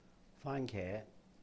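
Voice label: "fāan-ké", Cantonese; tomato; 番茄; faan1ke2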